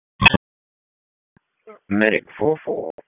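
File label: EMS Dispatch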